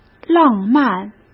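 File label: "浪漫", Mandarin Chinese; chinese flashcards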